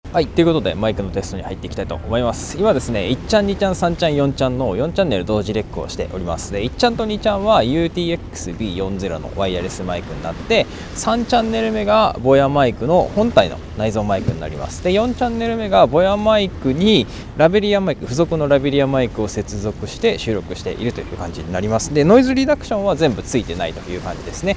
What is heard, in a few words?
BOYAMIC Built in MIC on board record; boyamic review